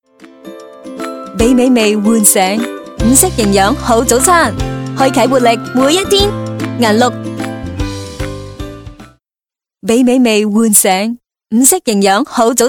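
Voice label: Chinese Cantonese female Narration; voice over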